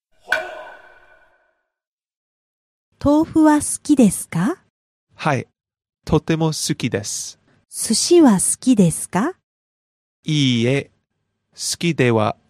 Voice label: dialog; JapanesePod101; Beginner Season 1